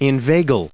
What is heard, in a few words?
"inveigle", en us